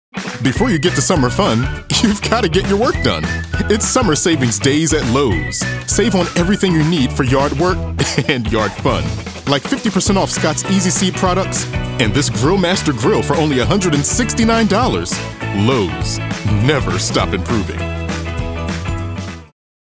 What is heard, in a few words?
Fun, Friendly; Lowe's